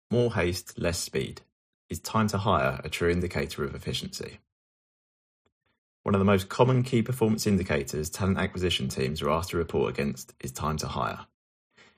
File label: voiceover